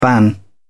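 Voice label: "ban", British English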